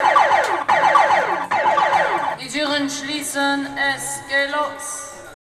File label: "oktober", shouts; Best Service; Hyper Dance